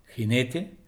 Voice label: "jinete", es